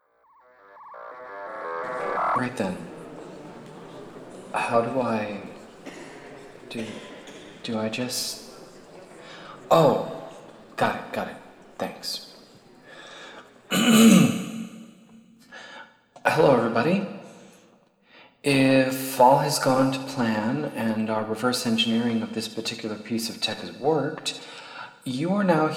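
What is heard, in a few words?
English; moul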